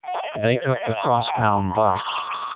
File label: dam siren melp, speechsamples; With voice